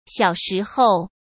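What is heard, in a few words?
"小时候", Mandarin Chinese; hsk; chinese flashcards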